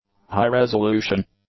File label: "high-resolution", English; adjectives